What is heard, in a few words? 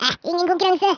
"flawless", Norwegian; Speech; 11khz